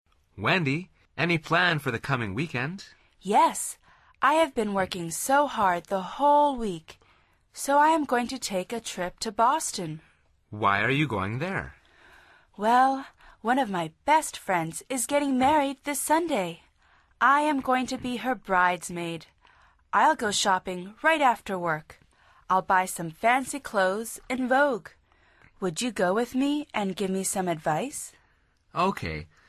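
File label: dialog, Conversación en Ingles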